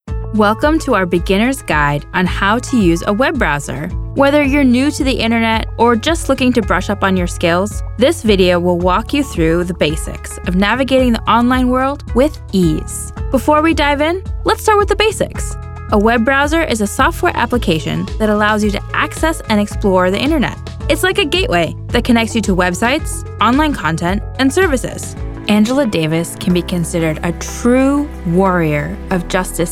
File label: Narrative Demo